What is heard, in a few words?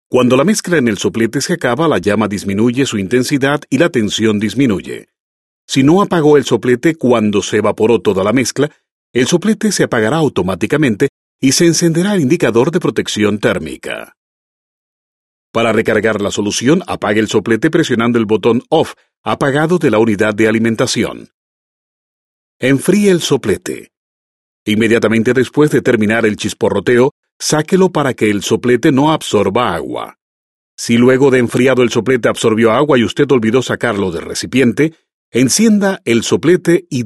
spanish male